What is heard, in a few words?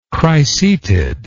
"cricetid", English